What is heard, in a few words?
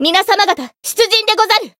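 encounter, Japanese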